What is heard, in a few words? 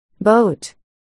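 "boat", spoken word, articulation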